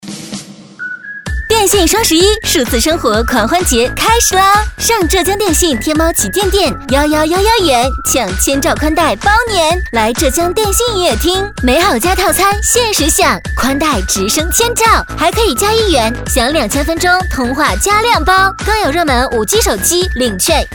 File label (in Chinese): 女 门店促销 电信双十一促销